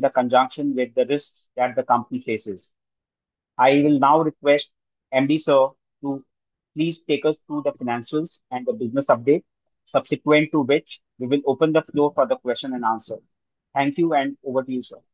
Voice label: Earnings Conference call; Standard Engineering Technology Ltd